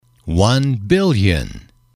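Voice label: "one billion", English, male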